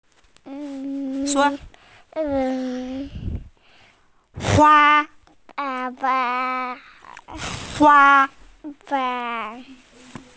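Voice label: "hua1", Mandarin Chinese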